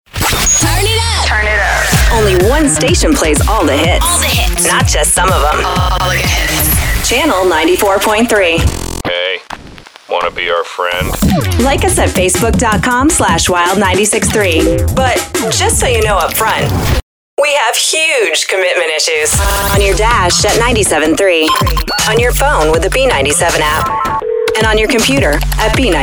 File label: Top 40 Imaging